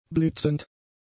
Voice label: "blitzend", German; adjectives

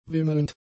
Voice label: "wimmelnd", German; adjectives

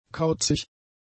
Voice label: "kauzig", German; adjectives

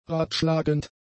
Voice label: "radschlagend", German; adjectives

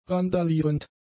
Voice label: "randalierend", German; adjectives

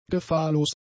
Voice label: "gefahrlos", German; adjectives

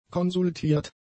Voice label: "konsultiert", German; adjectives

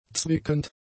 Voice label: "zwickend", German; adjectives

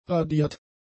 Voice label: "radiert", German; adjectives

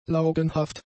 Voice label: "laugenhaft", German; adjectives

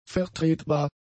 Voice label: "vertretbar", German; adjectives

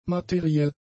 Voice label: "materiell", German; adjectives

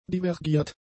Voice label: "divergiert", German; adjectives

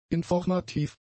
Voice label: "informativ", German; adjectives

